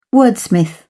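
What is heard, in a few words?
"wordsmith", British English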